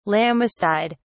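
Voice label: "lammastide", British English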